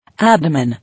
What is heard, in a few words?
"Abdomen", English